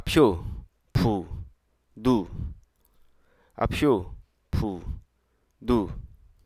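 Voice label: Hruso Aka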